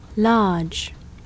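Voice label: English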